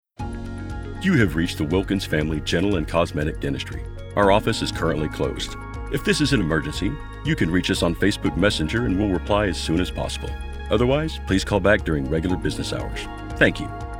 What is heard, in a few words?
Telephony